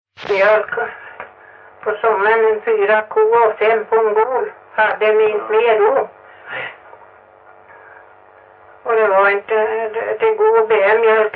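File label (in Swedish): Stenåsa Öland, svenska sagor dialekter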